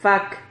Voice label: "fac", Silabas

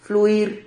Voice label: "fluir", Silabas

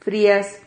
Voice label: "frias", Silabas

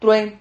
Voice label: "true", Silabas

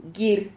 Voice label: "guir", Silabas

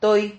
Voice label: "toi", Silabas

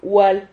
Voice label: "hual", Silabas

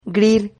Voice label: "grir", Silabas

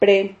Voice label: "pre", Silabas

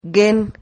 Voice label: "guen", Silabas

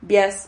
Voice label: "vias", Silabas

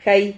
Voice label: "jai", Silabas

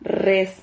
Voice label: "rrez", silabas

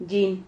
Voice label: "llin", Silabas

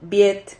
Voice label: "viet", Silabas

